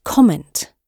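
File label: "comment", British English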